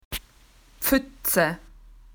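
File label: "puddle", Australian English; PictureDictionary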